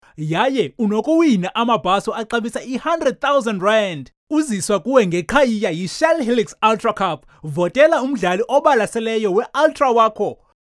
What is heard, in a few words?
isiXhosa Billboard